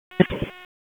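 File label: Police Dispatch, p25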